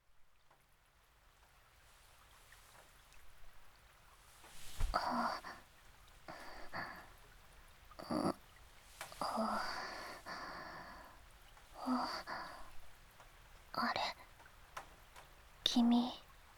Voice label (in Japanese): 雨降りバージョン, ASMR; 田舎のダウナー幼馴染の子作りを手伝って…